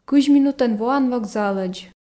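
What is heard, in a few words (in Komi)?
кызь минутӧн воан вокзалӧдз, Komi, female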